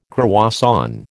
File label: "croissant", American